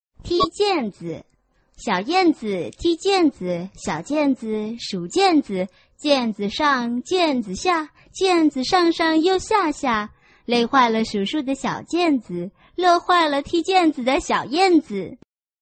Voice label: chinese tongue twister